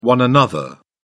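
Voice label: "one-another", English, British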